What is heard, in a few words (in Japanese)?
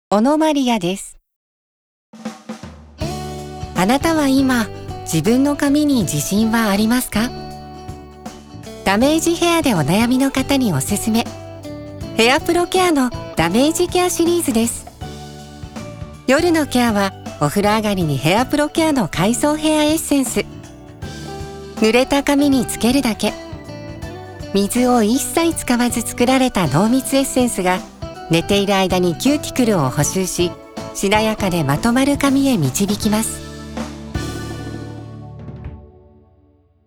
柔らかい